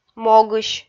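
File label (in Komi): "могысь", Komi, female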